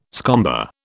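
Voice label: "scomber", British English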